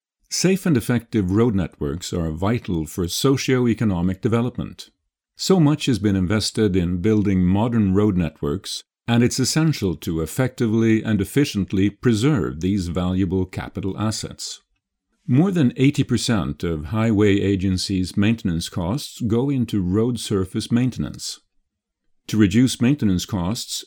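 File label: Swedish Sweden male English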